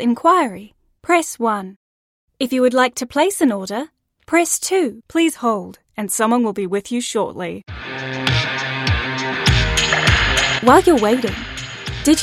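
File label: New Zealand phone voice, female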